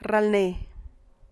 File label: attempt, San Lucas Quiaviní Zapotec; ralne; intentar